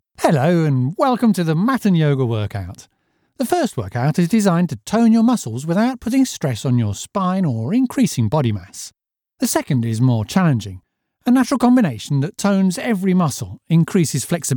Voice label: EXERCISE DVD, Friendly Yoga Workout: Real Genuine British Articulate Believable